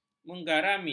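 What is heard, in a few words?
"menggarami", Malay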